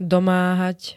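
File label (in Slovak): "domáhať", Slovak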